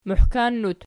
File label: "muhkannut", Archi